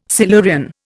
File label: "Silurian", Indian